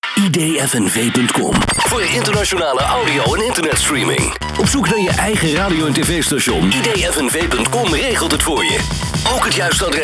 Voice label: Commercial